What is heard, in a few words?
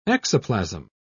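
"exoplasm", British English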